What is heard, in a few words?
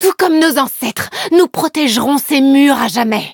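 upgrade, French; Cookie Run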